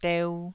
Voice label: "deu2", taiwanese syllable

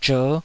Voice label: "zher5", Taiwanese syllable

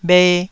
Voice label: "bhe1", taiwanese

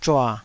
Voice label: "zhua3", Taiwanese syllable

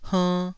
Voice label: "hernn2", Taiwanese syllable

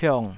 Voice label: "piong3", Taiwanese

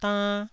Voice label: "dann1", Taiwanese syllable

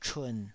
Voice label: "chun3", taiwanese

